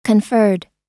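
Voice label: "conferred", US English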